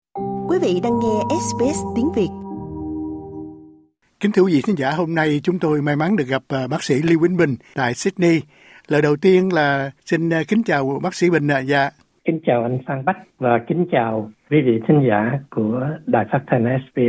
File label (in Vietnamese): vietnamese; Cao niên với việc Ăn Trứng; SBS Vietnamese; cao nien vui song